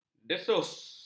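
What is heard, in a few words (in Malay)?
"desus", Malay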